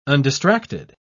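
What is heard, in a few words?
"undistracted", British English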